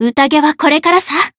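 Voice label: Voice heal, Japanese; isuka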